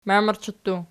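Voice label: "mamerchi" plural, Archi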